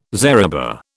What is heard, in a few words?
"zariba", British